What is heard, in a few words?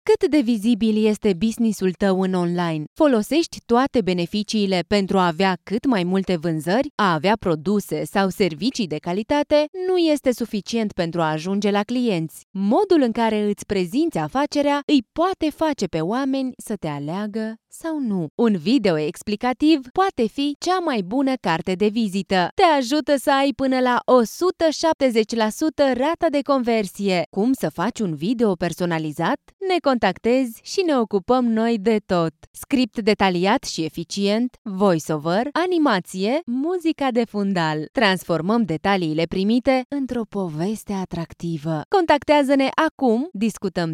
romanian medical narration